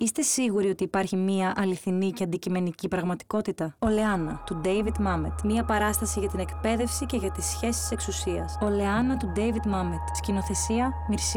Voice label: Oleanna Radio Spot